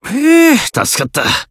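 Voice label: healed, Japanese; hardy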